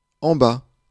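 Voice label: "en bas", French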